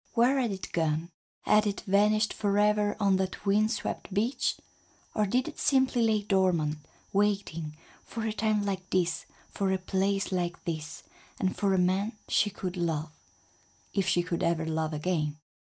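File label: Italian female voice over, English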